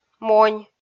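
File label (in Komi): "монь", Komi, female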